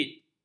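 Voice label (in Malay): "afid", Malay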